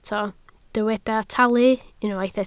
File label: "talu", Welsh